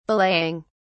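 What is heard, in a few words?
"belaying", British English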